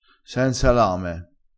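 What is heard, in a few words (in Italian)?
"salame", dialetto crespinese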